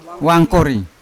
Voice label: "wankori" crocodile, Yawa